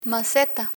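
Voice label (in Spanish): "maceta", Spanish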